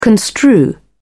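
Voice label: "construe", British English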